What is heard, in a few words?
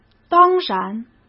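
"当然" Mandarin Chinese; chinese flashcards; hsk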